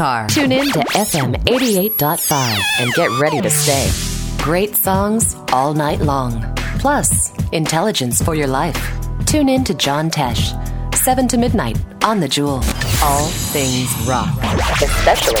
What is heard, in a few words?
radio vo